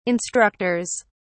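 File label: "instructors", British English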